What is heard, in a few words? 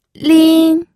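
"lin", first tone, pinyin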